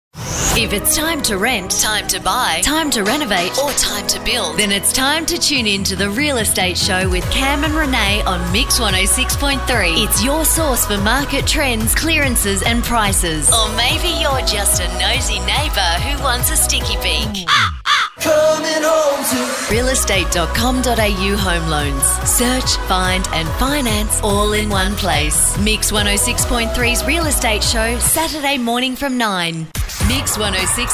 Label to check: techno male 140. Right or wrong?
Wrong